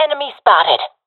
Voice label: sees enemy, professional fem; vo; Counter Strike Model Pack; CS:GO